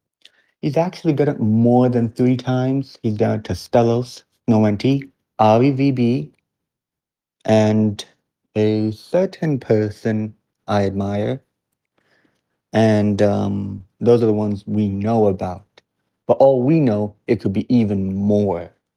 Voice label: voice message